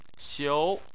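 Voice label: "xiu", Chinese, second tone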